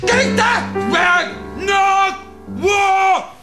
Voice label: hayck hak kunna no no, Voice gibbrish

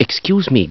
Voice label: "excuse me", Voice

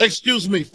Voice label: "excuse me" big, Voice; expletives

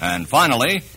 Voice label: and finally announcer

